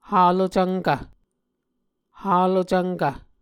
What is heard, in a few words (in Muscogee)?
"halocvnkv", Muscogee